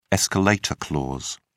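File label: "escalator clause", British English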